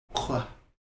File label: "qv", Heiltsuk